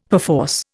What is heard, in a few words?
"perforce", Indian; Dictionary